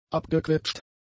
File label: "abgequetscht", German; adjectives